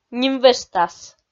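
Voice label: "нимвежтас", Komi, female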